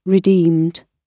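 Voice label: "redeemed", British English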